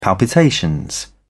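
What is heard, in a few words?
"palpitation", British English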